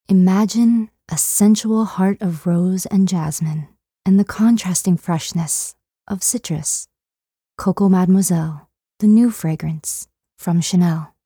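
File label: Commercial Deep Sensual Luxurious; American English voice-over artist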